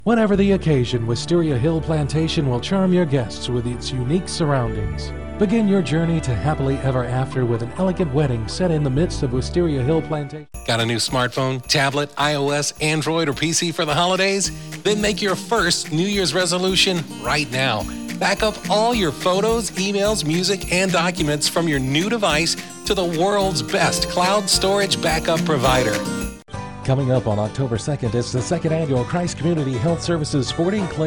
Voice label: Commercial